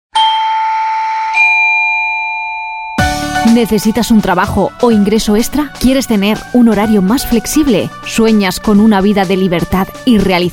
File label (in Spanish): anuncio